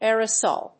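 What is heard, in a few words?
"aerosol", English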